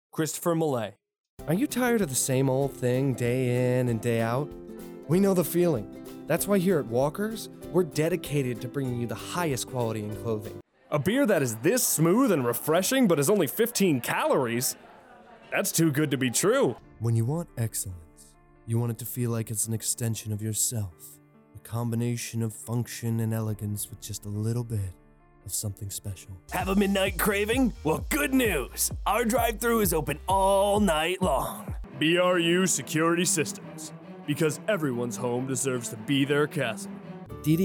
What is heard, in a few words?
Commerical